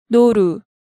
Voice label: "Noru", Hangeul sounds; DigitalSoju